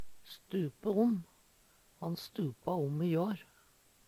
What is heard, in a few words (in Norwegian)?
stupe om, Numedalsmål; Uttrykk